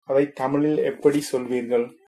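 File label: how to say, Tamil, male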